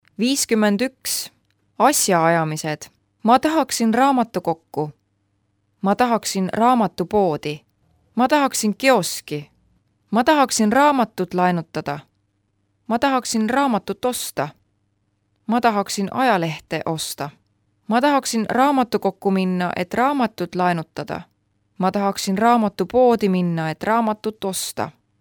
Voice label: Estonian